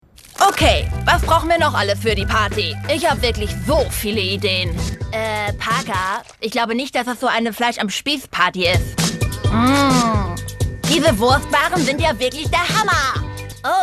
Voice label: Synchron Kinderserie Trickstimme lispelnd

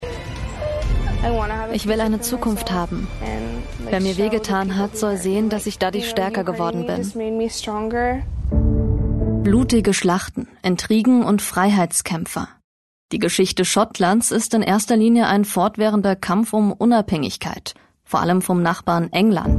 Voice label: Voice Over und Off Sprecherin Mix

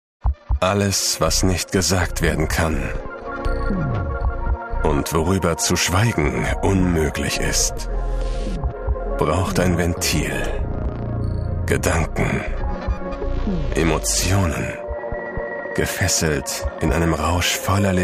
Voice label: Werbe Spot, Commercial (Werbung), German; Deep Field - Demo-Werbespot

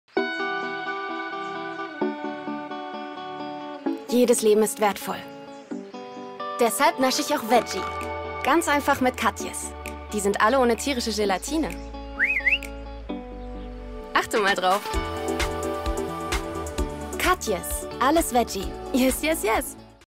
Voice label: TV Spot; Katjes

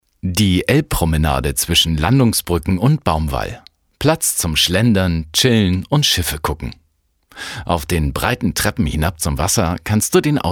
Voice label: Kommentar

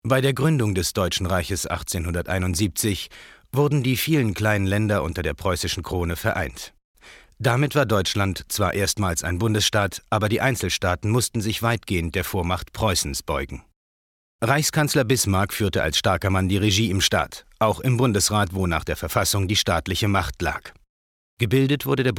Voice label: kommentar

